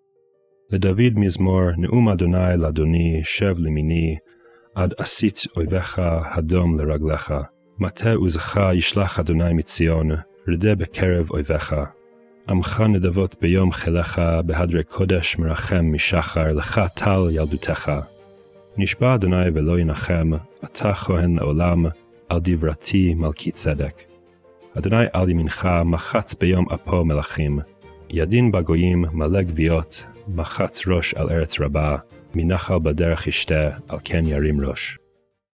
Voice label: poetry and prayer psalm hebrew